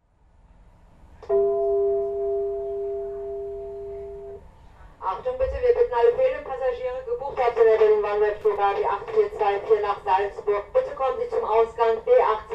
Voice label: Flughafen Ansagen, fehlenden Passagiere

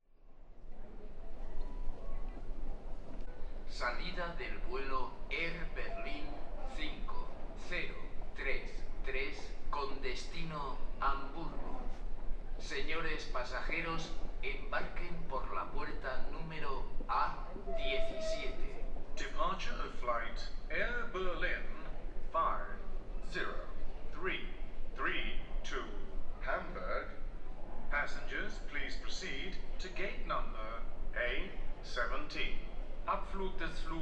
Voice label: Flughafen Ansagen Mallorca

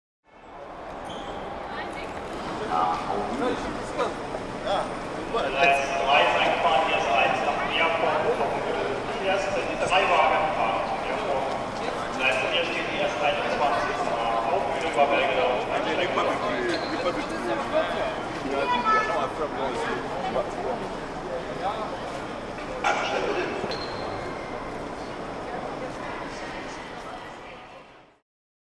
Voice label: S-Bahn Ansage Hamburg HBF

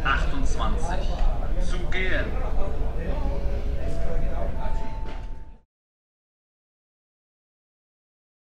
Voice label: Ansage Air Berlin nach Hamburg

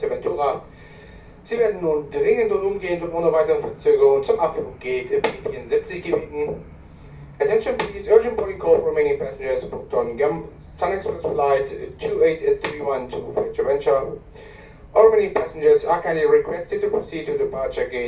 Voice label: dringender Aufruf, Flughafen Ansagen; SunExpress

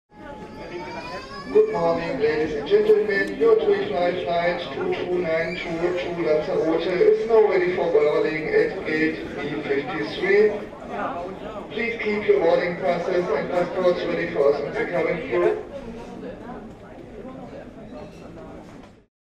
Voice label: Flughafen Ansagen; your TUI fly is now ready

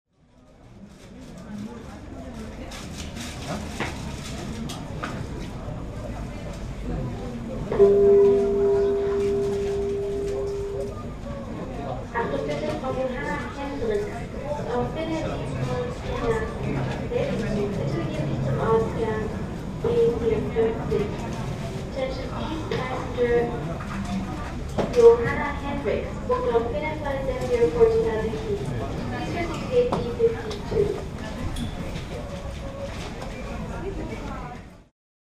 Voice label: Achtung bitte, Flughafen Ansagen

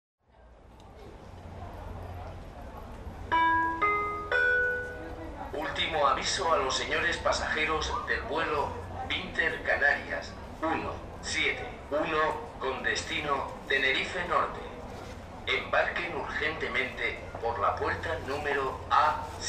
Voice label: ultimo avisto, Flughafen Ansagen; Inter Canarias 171

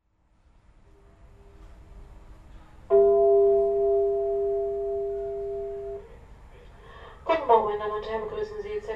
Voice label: nach Madrid, Flughafen Ansagen

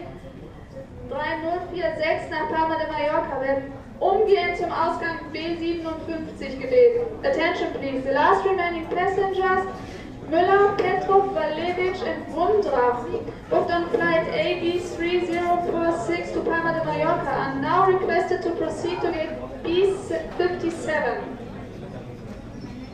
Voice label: die Passagiere plus englisch, Flughafen Ansagen

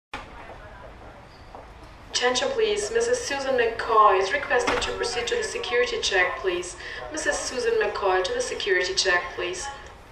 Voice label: the security check, Flughafen Ansagen

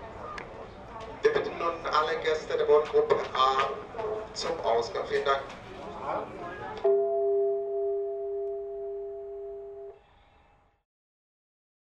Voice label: Flug nach Tegel, Flughafen Ansagen

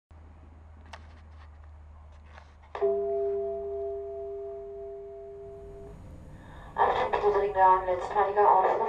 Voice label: Flug nach Amsterdam de en, Flughafen Ansagen

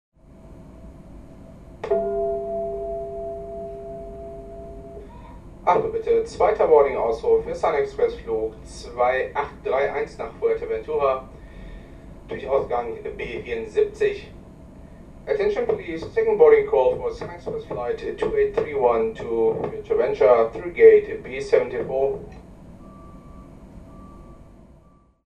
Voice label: 2ter Aufruf nach Fuerteventura, Flughafen Ansagen

